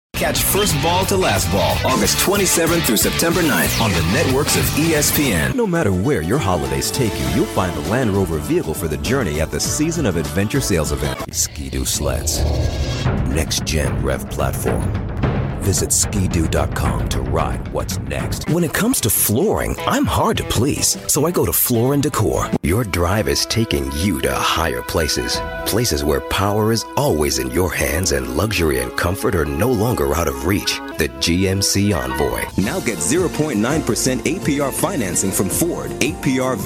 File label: american male commercials